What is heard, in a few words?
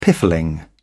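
"piffling", British English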